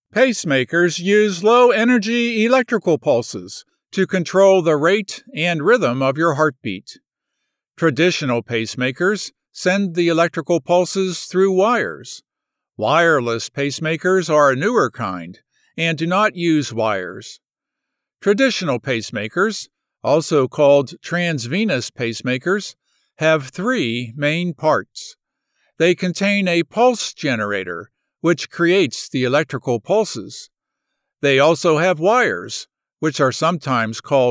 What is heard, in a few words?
Explainer